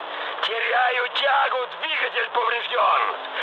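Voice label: Russian damaged engine mood med, speech; War Thunder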